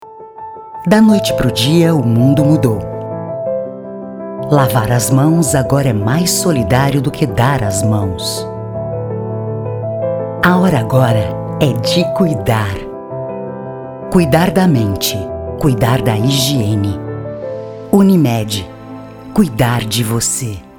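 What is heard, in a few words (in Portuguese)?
Português - Brasileiro, Voz Padrão - Grave, Feminino; UNIMED CORONAVÍRUS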